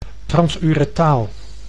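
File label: "transuretaal", Dutch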